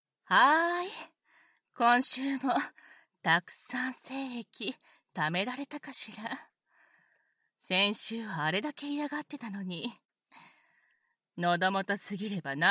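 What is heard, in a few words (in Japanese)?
耳舐め（バイノーラルマイク 効果音なし）; 射精管理一週間後・耳舐め手コキ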